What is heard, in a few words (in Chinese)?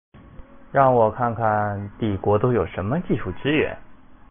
使用道具, BattleCry